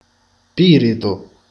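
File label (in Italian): "scoreggia", Italian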